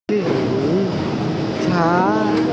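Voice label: "jing3cha2", Mandarin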